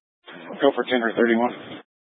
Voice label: Incident Tac 1, scanner